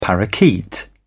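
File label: "parakeet", British English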